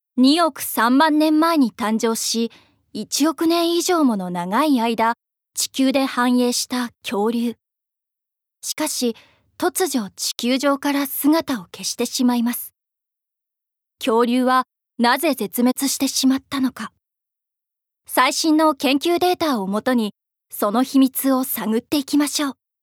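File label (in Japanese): NA, 声優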